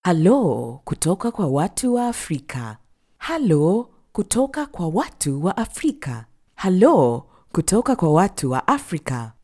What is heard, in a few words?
swahili ivr